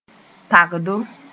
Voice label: "tagdo", moore; thick (pl.)

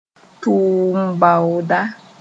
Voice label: "tʋʋm-baooda", moore; candidate seeking employment

